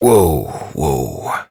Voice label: Horse Stop Walk Adult; Duviri